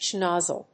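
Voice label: "schnozzle", English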